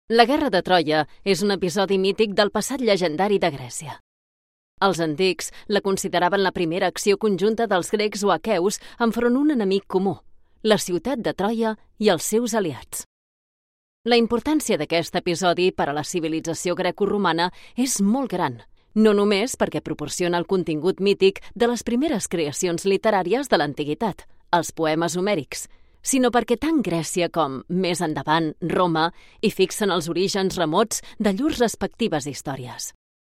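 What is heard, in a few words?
catalan explainer videos, female; professional Spanish and Catalan voice actor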